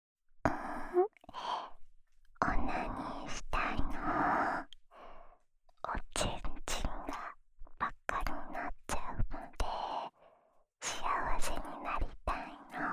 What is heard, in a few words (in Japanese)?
オナサポ用シコシコボイスループ 左耳, ASMR; おま○こ係２